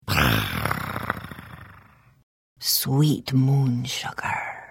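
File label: Idle, Khajiit female; The Elder Scrolls III - Morrowind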